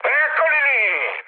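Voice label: Italian enemy detected mood high, speech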